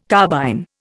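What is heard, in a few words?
"carbine", Indian English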